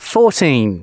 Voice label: announcements male